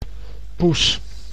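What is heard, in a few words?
"poes", Dutch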